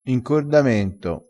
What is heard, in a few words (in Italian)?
"incordamento", dialettale crespinese